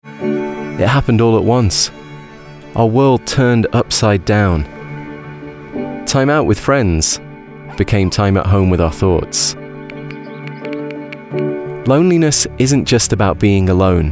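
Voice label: conversational natural tone, Male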